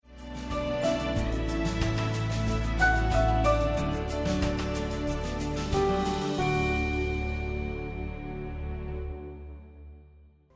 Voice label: Sermon English